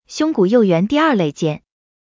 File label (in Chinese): 配音